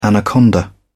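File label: "anaconda", British English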